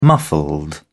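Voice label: "muffled", British English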